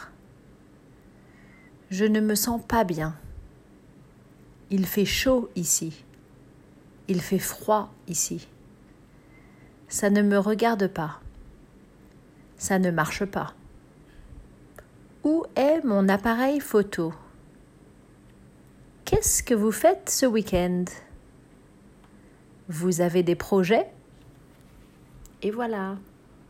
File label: Useful French phrases Shop Travel Common